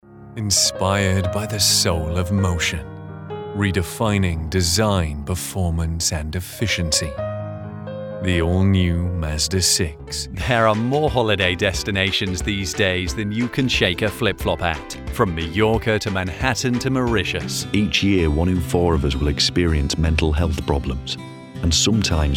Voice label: english british commercial; United States